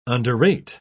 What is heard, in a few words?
"underrate", pronounce